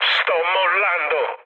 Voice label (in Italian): bailout, mood med, speech it; War Thunder